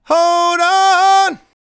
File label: "Hold On", vocal phrases; Ultimate Vocals